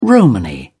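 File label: "romany", English, British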